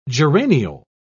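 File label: "geraniol", British English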